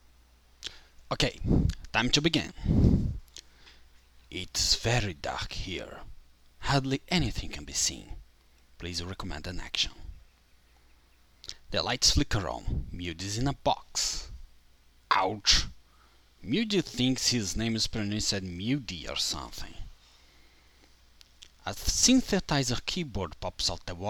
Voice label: Farmer Narrator